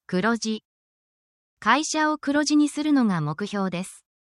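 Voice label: Japanese word, essential Japanese phrases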